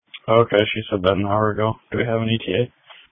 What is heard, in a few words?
Police Tactical Encrypted